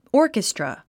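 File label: "orchestra", English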